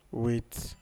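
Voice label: "wit", Nigerian Pidgin, male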